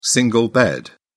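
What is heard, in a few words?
"single bed", British English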